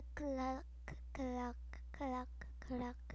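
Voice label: "clock", spoken word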